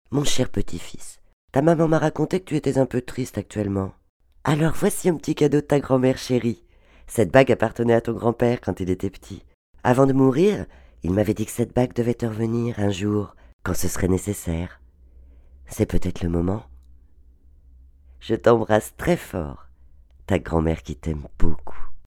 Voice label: Narration Voix de Grand mère

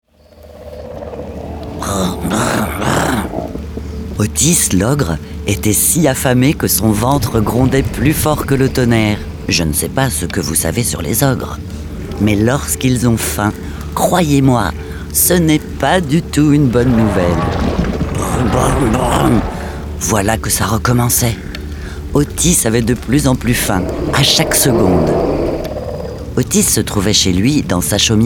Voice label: Narration audiobook; LUNII; foret blanche neige

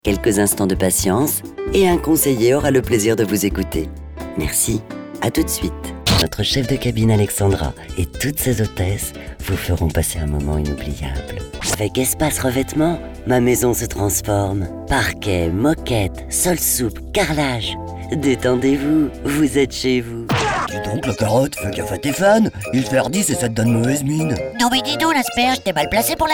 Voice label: PUB attentes tel; MEDLEY

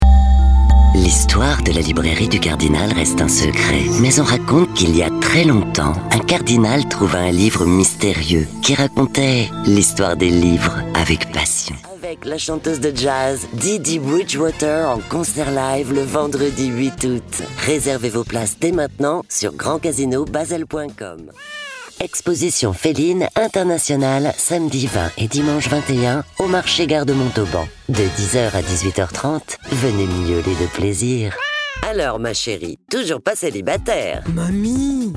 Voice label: MEDLEY SPOTS PUB

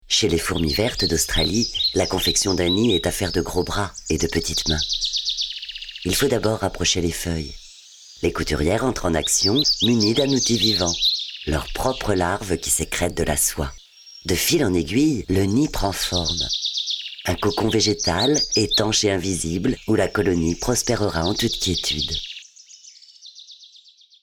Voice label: docu animalier

